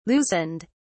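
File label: "loosened", British English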